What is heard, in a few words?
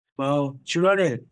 Voice Acting, es